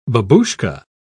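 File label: "babushka", British English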